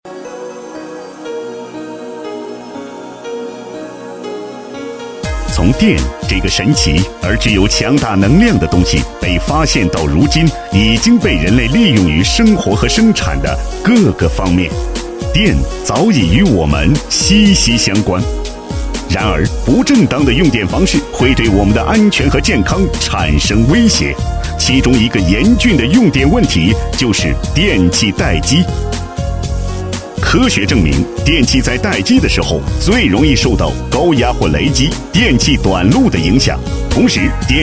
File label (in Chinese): 男 专题 科技感, 国语男声配音, 浑厚; 电器待机的危害